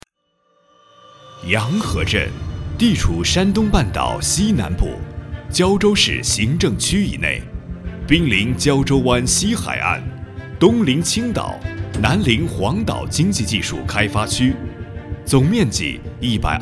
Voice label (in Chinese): 专题地区宣传 沉稳; 山东洋河镇